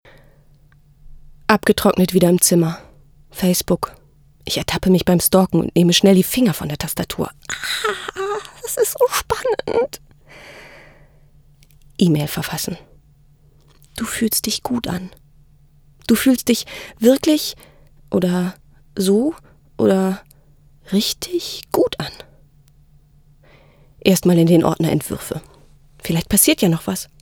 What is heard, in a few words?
teenager